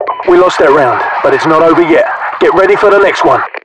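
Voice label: British encourage lost; Voiceovers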